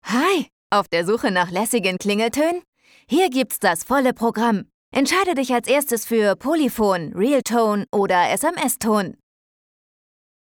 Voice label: de telefon, deutsch frauen; sprecher

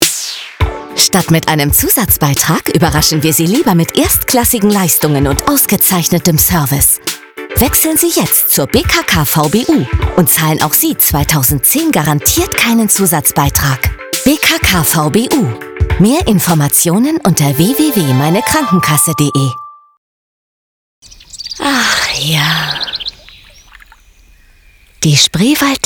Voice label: werbung, deutsch frauen

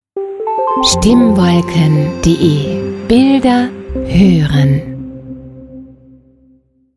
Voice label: Audiologo; sprecher

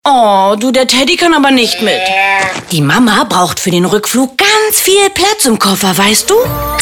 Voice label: de funkspot, deutsch frauen; sprecher

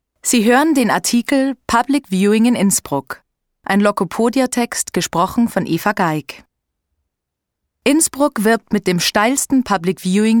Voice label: at audioguide, oesterreichisch; sprecher

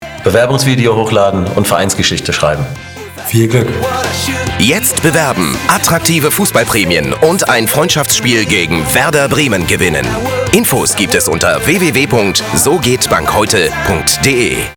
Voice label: werbung, deutsch maenner; sprecher

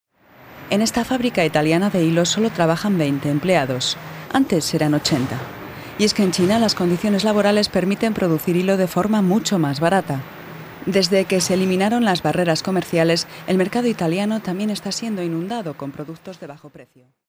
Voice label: doku, spanisch

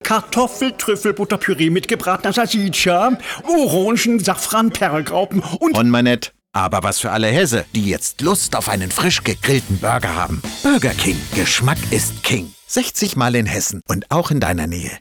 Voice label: Werbung

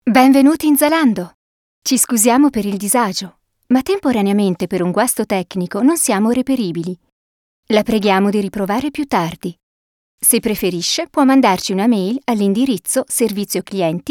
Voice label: italienisch technische stoerung

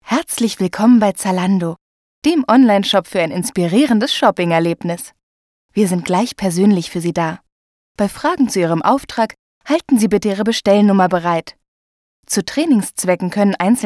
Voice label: de telefon, deutsch frauen; sprecher

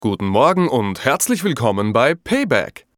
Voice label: at telefon, sprecher oesterreichisch

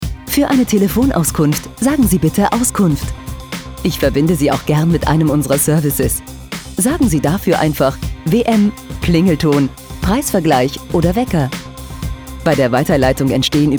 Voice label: telefon, deutsch frauen; sprecher

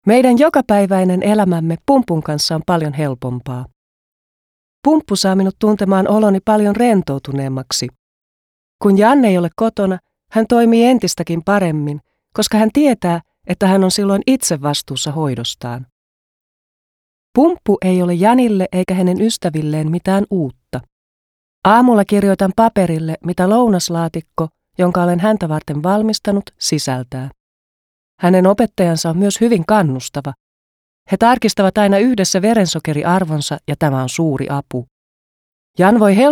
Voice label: finnisch voiceover; sprecher

